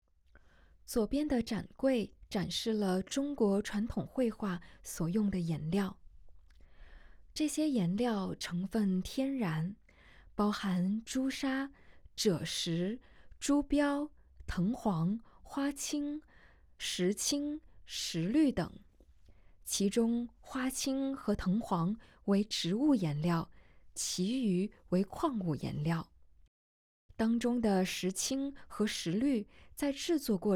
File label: Putonghua; audio guide